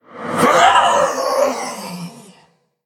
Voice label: Male Elemental Death